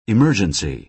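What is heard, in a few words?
"emergency", American English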